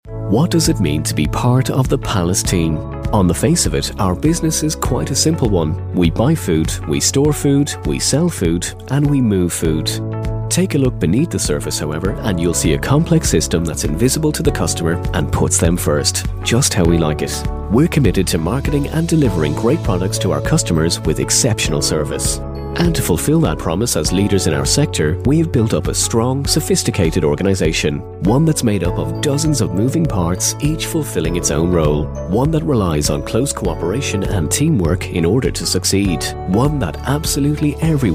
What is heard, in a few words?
irish male corporate